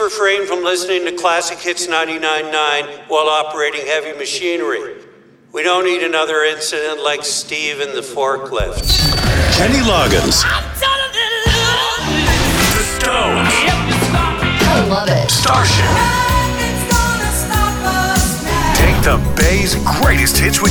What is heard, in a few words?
Radio Imaging, Country